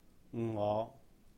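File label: "我", Cantonese; I; me; ngóh; ngo5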